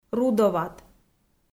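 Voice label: "рудоват", Komi, female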